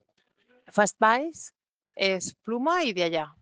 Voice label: Voice message